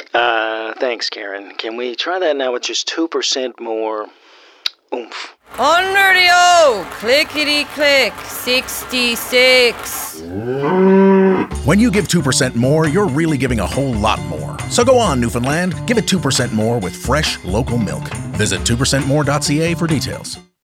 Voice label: Bingo Caller